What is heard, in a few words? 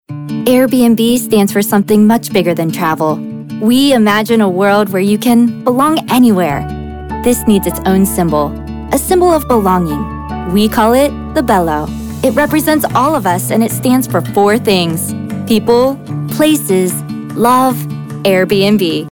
Cheerful Playful Friendly